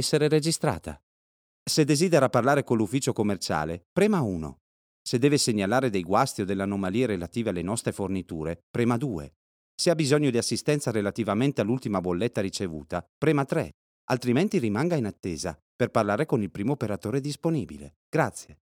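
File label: Italian IVR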